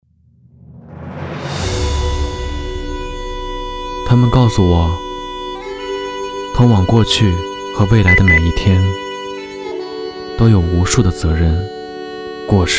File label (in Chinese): 独白 青年独白